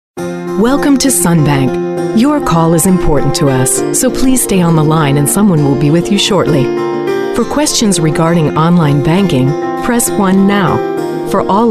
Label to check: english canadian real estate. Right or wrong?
Wrong